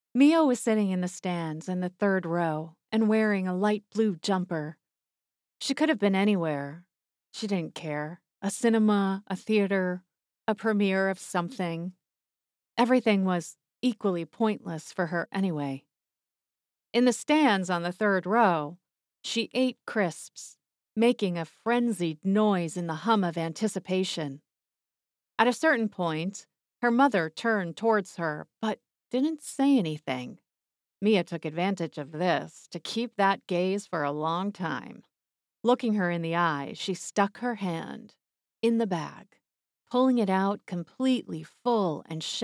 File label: Dramatic Dark Humor Narration Poetry VO